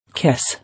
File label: "Kiss", English